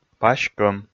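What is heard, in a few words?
"пась-кӧм", Komi, male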